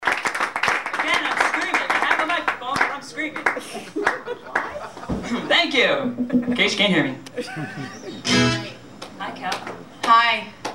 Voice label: Live Patter; Tin Angel; Calvin Klein Pippi Longstocking